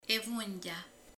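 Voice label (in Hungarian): "köröm", Hungarian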